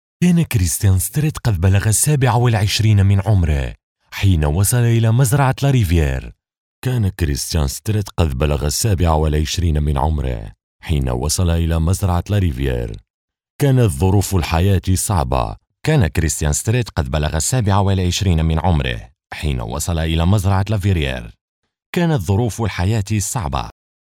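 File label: Arabic, Saudi Arabia, male, voice over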